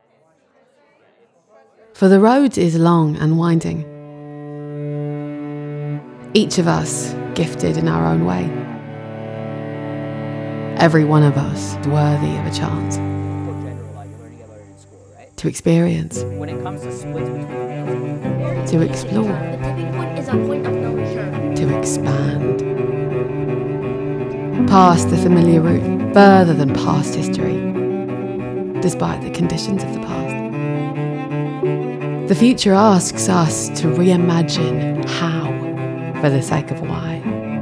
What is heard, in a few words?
english british documentaries